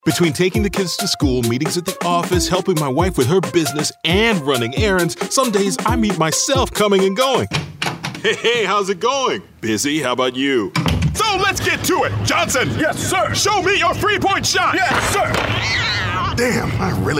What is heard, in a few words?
Commercial